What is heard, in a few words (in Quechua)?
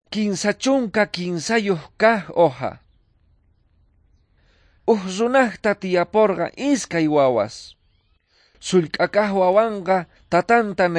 quechua bolivian cochabamba; good news